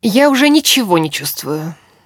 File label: idle, characters voice human woman; Stalker Anomaly